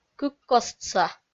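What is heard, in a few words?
"кыккостса", Komi-Zyrian, female; kpv audio dict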